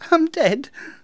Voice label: "oh dear", Speech; Worms Armageddon